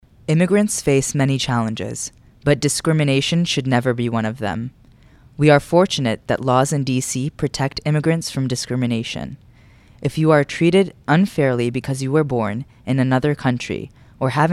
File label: English